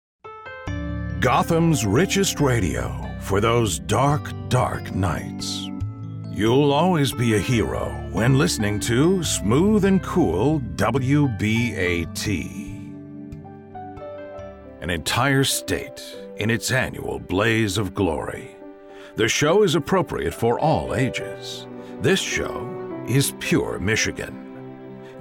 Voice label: TV promos cool confident calm